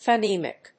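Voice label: "phonemic", English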